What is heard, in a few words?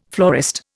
"florist", Indian